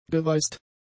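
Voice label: "geweißt", German; adjectives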